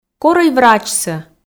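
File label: "корӧй врачсӧ", Komi, female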